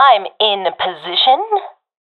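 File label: in position, professional female; vo; agents; Source Model Packs; Counter Strike Model Pack; CS2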